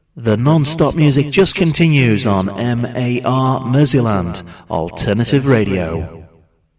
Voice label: Shoutcast; MAR